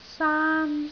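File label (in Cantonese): "saan1", Cantonese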